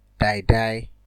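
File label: "die die", Nigerian Pidgin, male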